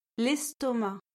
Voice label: "l'estomac", French